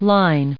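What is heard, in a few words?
"line", US English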